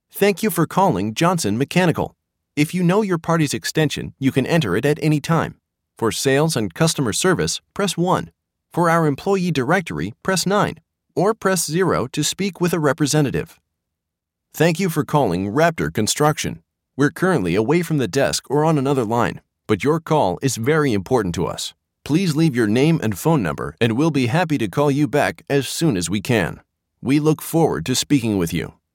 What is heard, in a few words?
american voice telephone